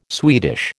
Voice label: "Swedish", American

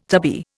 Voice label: "tubby", Indian

